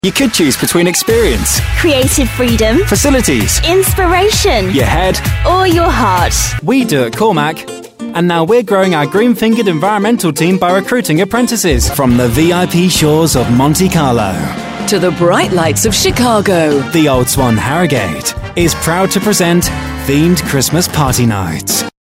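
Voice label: Corporate, english british male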